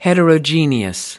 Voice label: "heterogeneous", us